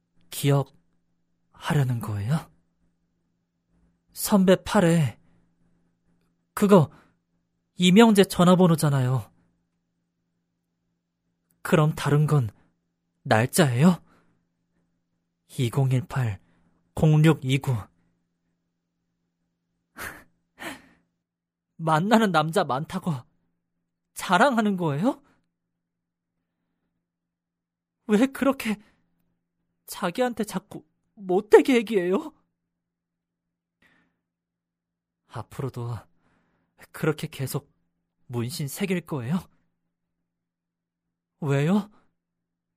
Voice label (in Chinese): 韩语男声 游戏信息流